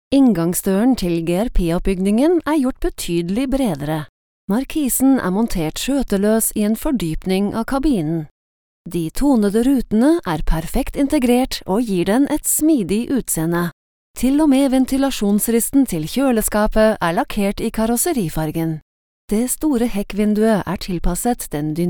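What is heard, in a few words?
Norwegian, female, explainer film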